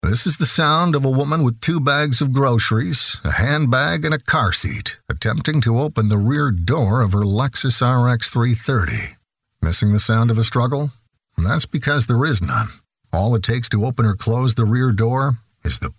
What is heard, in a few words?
commercial